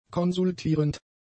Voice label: "konsultierend", German; adjectives

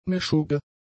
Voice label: "meschugge", German; adjectives

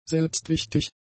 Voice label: "selbstwichtig", German; adjectives

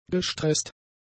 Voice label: "gestresst", german; adjectives

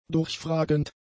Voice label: "durchfragend", German; adjectives

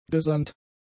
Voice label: "gesandt", German; adjectives

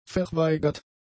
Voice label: "verweigert", German; adjectives

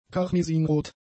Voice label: "karmesinrot", German; adjectives

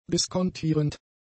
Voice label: "diskontierend", German; adjectives

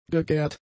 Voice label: "gegärt", german; adjectives

